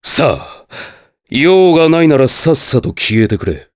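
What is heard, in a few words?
vox dialogue, voices, Japanese; Nicx; kings raid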